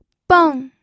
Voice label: "beng4", pinyin

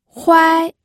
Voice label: "huai1", pinyin audio

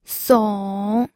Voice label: "song3", pinyin

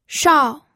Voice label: "shao4", pinyin

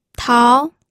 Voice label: "tao2", pinyin

